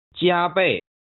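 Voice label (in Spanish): "加倍", chino mandarin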